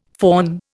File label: "faun", Indian